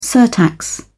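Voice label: "surtax", British English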